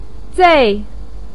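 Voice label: "zei" fourth tone, Mandarin Chinese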